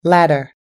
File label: "ladder", US English